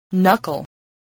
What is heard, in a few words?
"knuckle", English